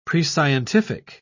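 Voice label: "prescientific", British English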